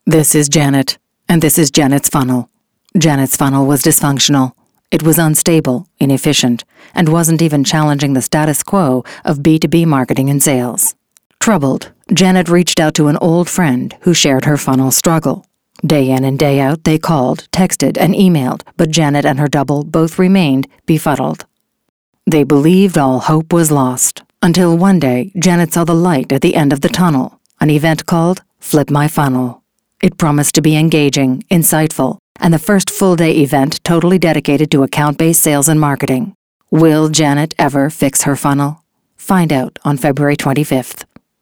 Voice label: Deadpan Monotone Humorous